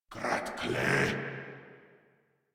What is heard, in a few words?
Surprised, Grineer Male